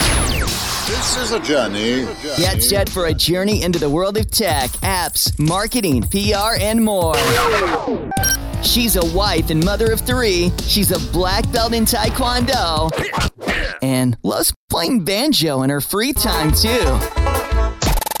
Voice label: Podcast Intro; App Nanny